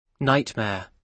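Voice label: "nightmare", British English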